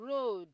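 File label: "road", Nigerian Pidgin, female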